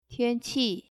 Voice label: "weather", mandarin

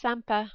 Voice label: "sympa", French

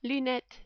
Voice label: "lunettes", French

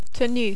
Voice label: "tenue", French

